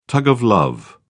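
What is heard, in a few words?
"tug of love", British English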